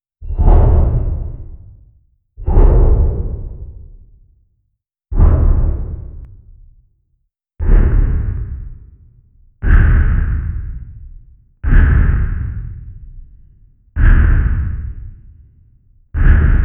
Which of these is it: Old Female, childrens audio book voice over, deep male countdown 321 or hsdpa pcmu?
deep male countdown 321